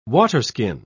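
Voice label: "waterskin", British English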